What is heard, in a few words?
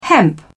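"hemp", British English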